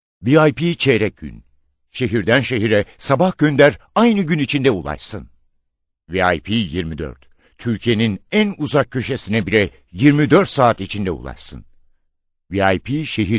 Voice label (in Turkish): reklam